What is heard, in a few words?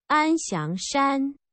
mandarin; ann siang hill; singapore